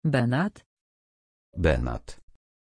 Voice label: pronunciation Beñat, Polnisch